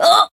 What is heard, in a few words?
damaged jp